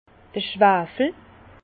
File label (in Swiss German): "schwafel", Bas Rhin; vin; soufre